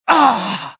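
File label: death, dialogue generic Italian; mohaa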